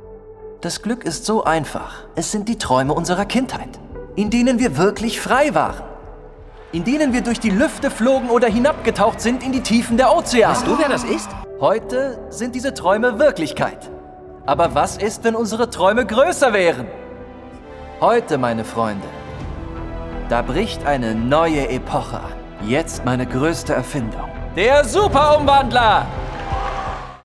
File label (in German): Synchron de Animation Tech Unternehmer Visionaer begeisternd; Boonie Bears; LOFT VOICES